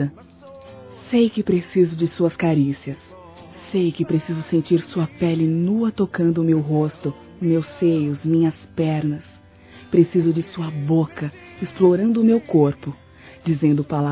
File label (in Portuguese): O PRAZER QUE SINTO POR VOCE (F); Telemensagens; Sensuais; fem